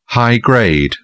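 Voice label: "high-grade", English, British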